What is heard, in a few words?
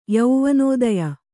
"ಯೌವನೋದಯ", kannada